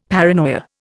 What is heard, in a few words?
"paranoia", Indian; Dictionary